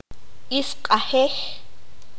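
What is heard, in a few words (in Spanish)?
"uña", Q'anjob'al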